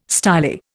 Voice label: "styli", Indian

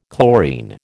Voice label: "chlorine", American

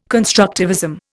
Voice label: "constructivism", Indian

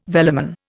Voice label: "velamen", Indian

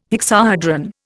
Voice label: "hexahedron", Indian

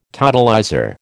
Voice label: "totalizer", American

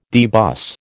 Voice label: "debus", American

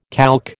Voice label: "calque", American

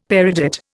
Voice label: "peridot", Indian; Dictionary

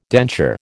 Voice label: "denture", American

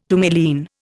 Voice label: "tourmaline", Indian

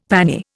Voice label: "pannier", Indian; Dictionary

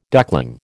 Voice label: "duckling", American

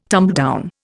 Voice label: "tumbledown", Indian

